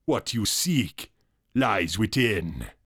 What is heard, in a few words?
vocal witch doctor dialogue what you seek lies within male